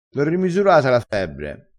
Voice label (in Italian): "strasciino", vocabolario dialettale crespinese